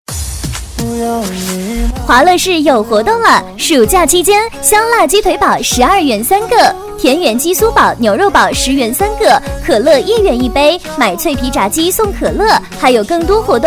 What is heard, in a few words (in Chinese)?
女 促销; 华莱士